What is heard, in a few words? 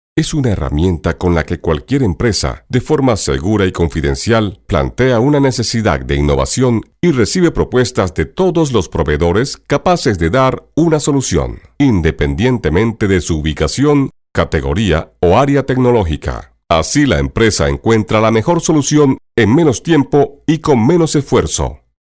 locutor venezolano venezuelan voiceover; Venezuelan male voice over